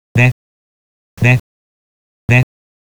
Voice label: "re", Japanese